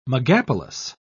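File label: "megapolis", British English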